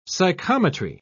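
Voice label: "psychometry", British English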